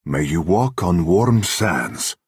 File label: Khajiit male hello